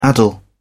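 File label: "addle", English, British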